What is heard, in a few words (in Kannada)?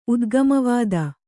"ಉದ್ಗಮವಾದಿ", Kannada